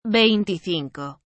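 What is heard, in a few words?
"veinticinco", Spanish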